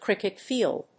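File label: "cricket field", English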